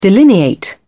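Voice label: "delineate", British English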